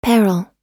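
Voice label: "peril", American English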